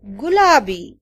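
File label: pink, Serbian; gcompris-data; colors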